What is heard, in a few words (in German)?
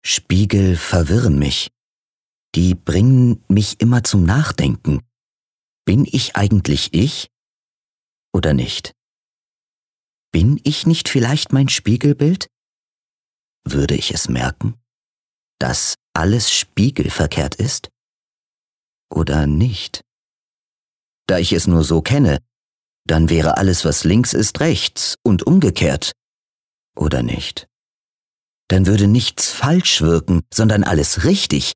Innerer Monolog